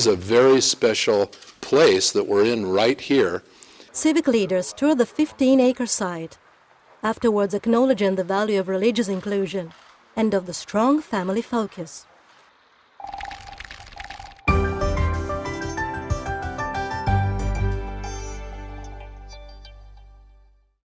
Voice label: English With Accent